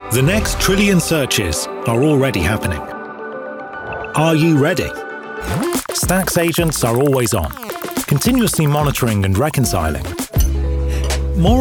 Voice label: Voice Explainer